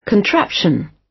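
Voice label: "contraption", British English; Design and innovation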